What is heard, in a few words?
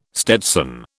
"stetson", British